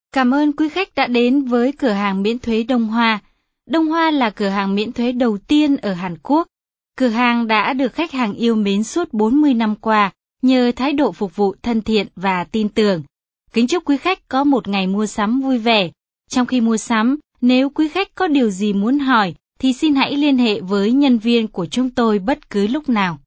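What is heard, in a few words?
Vietnamese, female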